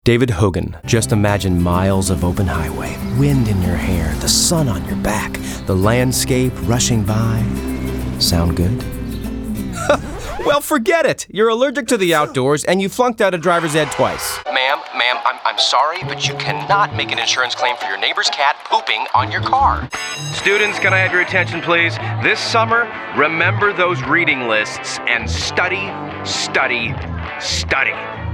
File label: Commercial